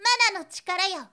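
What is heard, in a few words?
girl, "mananotikarayo"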